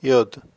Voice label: "yod", hebrew